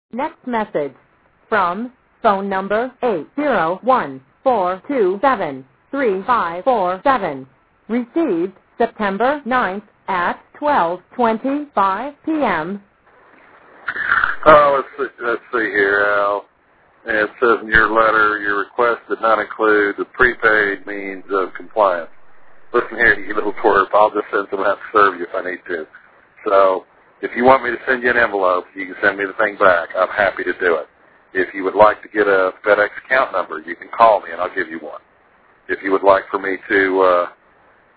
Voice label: voicemail